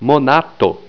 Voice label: "monato", esperanto

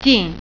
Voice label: "near", Mandarin

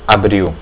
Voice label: april, portuguese

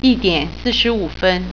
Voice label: quarter till 2, Mandarin

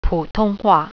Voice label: chinese, mandarin

